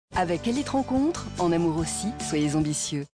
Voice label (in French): Pub TV